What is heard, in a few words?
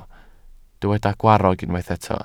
"gwarrog", Welsh